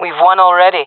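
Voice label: round start, jungle female; vo; agents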